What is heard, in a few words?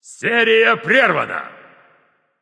"shutdown", Announcer Killstreak, Russian